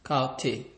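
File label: "cow tail", Nigerian Pidgin, male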